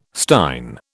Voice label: "stein", British